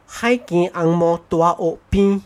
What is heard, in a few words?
"bras basah road", hokkien; singapore